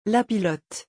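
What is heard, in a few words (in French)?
"la pilote", French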